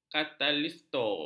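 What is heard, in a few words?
"katalisator", Malay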